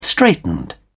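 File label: "straitened", British English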